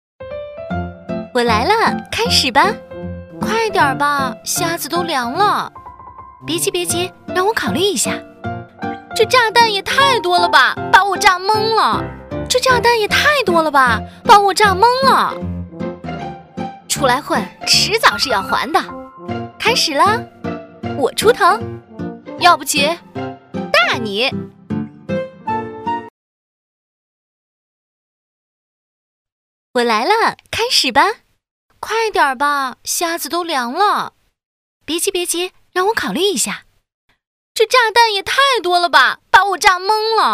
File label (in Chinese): 动画 游戏 麻将游戏 女